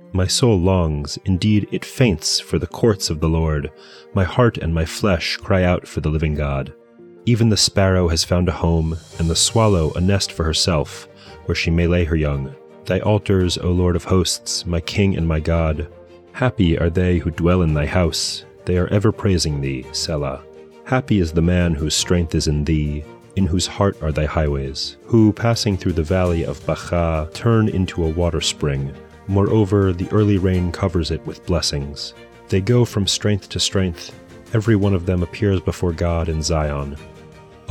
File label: poetry and prayer psalm english; Meir Soloveichik